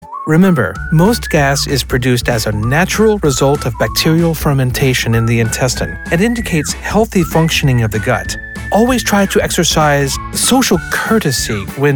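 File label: elearning, Male Voice Actor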